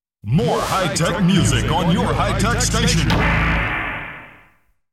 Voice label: More Hightech Music On Your Hightech Station!, jingles